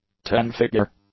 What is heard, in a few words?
"ten-figure", English; adjectives